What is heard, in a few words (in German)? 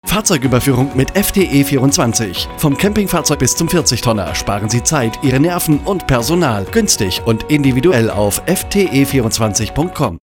Funkspot